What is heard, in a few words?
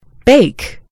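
"bake", American English; gcompris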